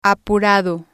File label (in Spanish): "apurado", spanish